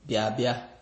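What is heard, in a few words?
"bia bia", Nigerian Pidgin, male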